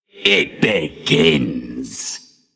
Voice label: Male Undead Attack